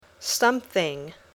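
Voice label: "something", English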